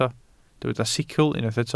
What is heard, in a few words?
"sicl", welsh